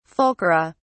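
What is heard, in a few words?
"fulcra", British English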